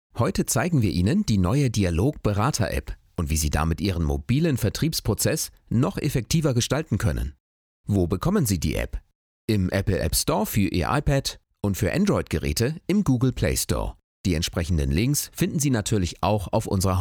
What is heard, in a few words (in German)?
stimmprobe e-learning